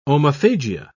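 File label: "omophagia", English, British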